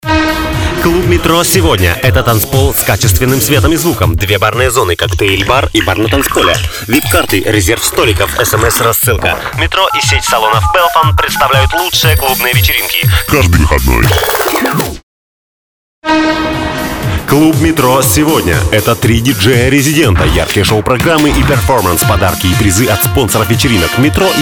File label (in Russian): reklama dlya radio; klub METRO; PRIMER ZAPISI REKLAMY